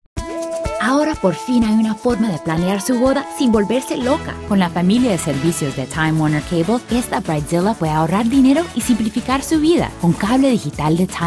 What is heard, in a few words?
languages spanish commercial